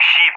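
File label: "ship", English speech; Allied Flight; DCS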